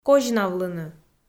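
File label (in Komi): "козьнавлыны", Komi, female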